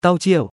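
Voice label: "เต้าเจี้ยว", Thai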